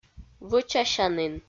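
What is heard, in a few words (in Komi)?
"вӧчасянін", Komi, female; audio dict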